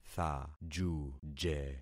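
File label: "thajuje", concatenated syllables; Affixing experiment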